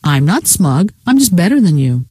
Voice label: rant, scientist female

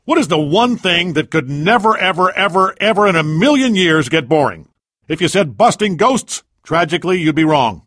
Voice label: chat

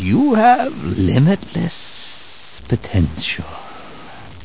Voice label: with you, voice commands; gman

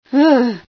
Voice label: female sci fear

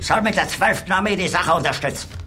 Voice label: chat

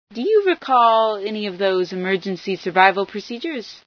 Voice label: survival, female sci

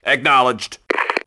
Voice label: got it, grunt; nimble grunt

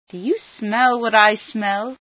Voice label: do you smell, female sci

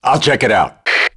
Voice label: charge, grunt; nimble grunt

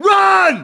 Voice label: down, grunt; nimble grunt

